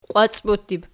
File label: "qiwacbottib", Archi